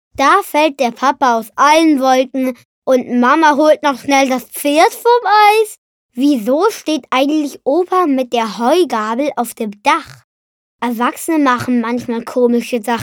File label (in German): Spot, jungen; Biomilch